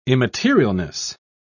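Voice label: "immaterialness", British English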